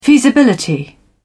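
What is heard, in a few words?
"feasibility", British English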